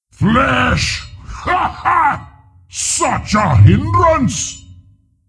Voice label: Slay, Creature; Steelbreaker

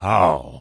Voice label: Tauren Male Elder NPC Greeting

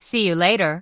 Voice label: Human Female Standard NPC Farewell

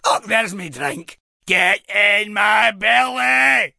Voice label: Rifleman Pissed

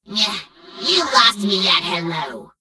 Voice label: Gnome Female Pissed; Death Knight

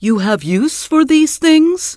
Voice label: NPC Tuskarr Female Vendor; Warrior

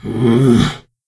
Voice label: NPC Death Knight Female Low Greeting

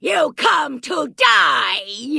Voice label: Vrykul Female Magic User Greeting

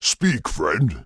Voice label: Orc Male Standard NPC Greeting

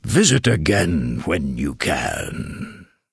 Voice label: Tuskarr Male Farewell; Warrior

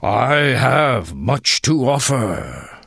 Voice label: Tuskarr Male Vendor; Warrior

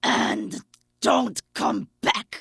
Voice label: Vrykul Female Warrior Farewell

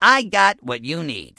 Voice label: Goblin Male Gruff NPC Vendor

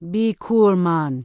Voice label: Troll Female Laid Back Pissed; NPC

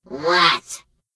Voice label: Gnome Female Greeting; Death Knight

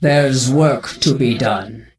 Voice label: NPC Death Knight Female Low Farewell

